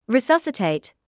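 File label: "resuscitate" English, British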